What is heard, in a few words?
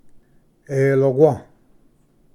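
"eloqua", Cherokee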